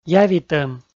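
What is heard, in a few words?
"явитӧм", Komi, male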